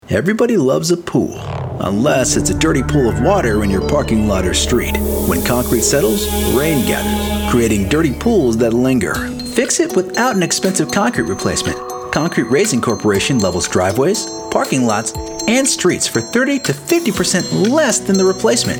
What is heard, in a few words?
Radio Spot